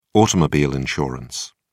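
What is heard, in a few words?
"automobile insurance", English, British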